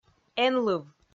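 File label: "энлыв", Komi, female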